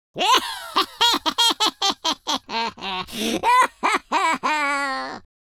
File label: happy, speech, Welsh